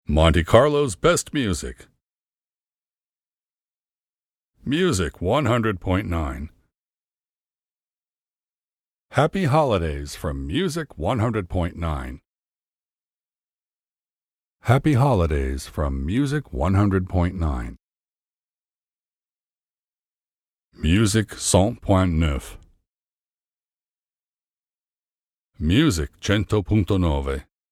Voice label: VO LINERS Music; 100.9 Monte Carlo